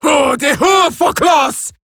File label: Cover Me Eximus Grineer Female